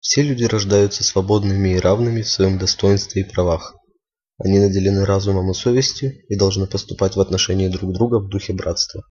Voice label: udhr ru